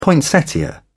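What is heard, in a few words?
"poinsettia", British English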